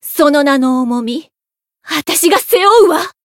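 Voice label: variable chant, Japanese; sherinu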